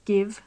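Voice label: "give", British English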